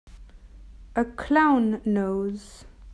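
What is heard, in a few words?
"A clown nose", English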